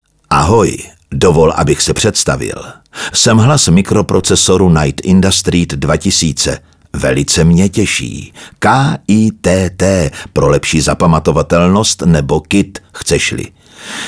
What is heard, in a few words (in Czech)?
ukázka čeština